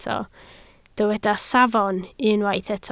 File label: "safon", Welsh